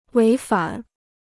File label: "违反", Chinese